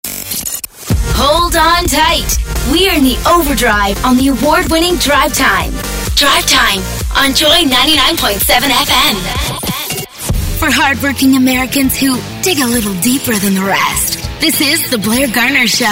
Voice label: Radio Dj

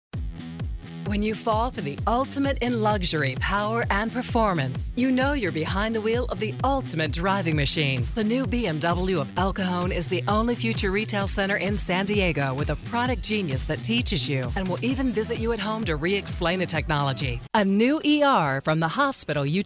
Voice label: Commercial Adult

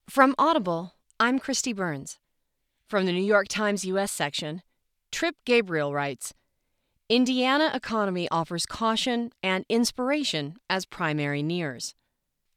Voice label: news narration

